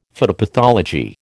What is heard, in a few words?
"phytopathology", American